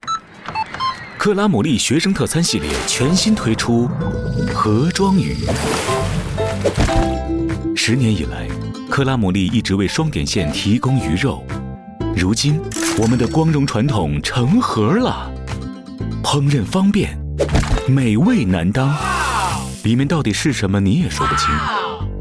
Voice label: Mandarin Ad Fish, 电台广告; 双点校园; 低端科技